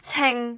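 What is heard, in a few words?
"cing6", Cantonese; microsoft Hiu Maan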